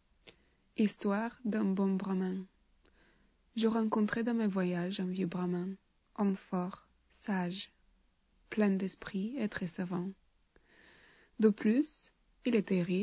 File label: speaking French; voice